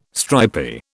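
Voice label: "stripy", British English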